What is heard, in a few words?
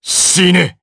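Vox Skill, Japanese; DarkKasel; kingsraid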